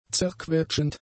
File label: "zerquetschend", German; adjectives